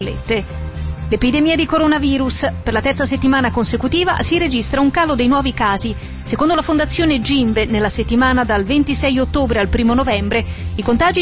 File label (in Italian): RADIO; R101; Calo dei nuovi casi